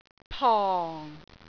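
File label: "pong4", Cantonese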